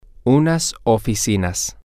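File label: some offices, Spanish, male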